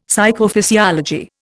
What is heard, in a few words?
"Psychophysiology", Indian; Dictionary